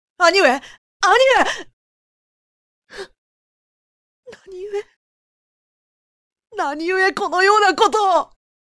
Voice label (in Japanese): 弱い感じのする青年; 泡沫の幻; 源義経; 「兄上、兄上っ！･･････っ･･･なにゆえこのようなことをっ･･･！」